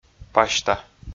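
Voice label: "пасьта", Komi, male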